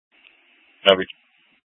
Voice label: Dispatch, scanner